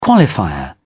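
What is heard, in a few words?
"qualifier", English, British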